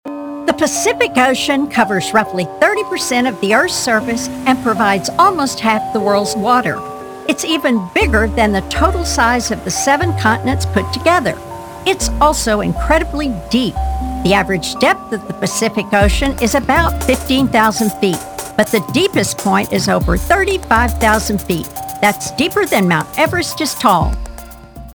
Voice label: Narration; Pacific Ocean